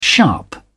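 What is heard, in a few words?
"sharp", British English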